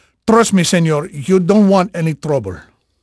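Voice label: Spanish male, voice; Pirates Of The Caribbean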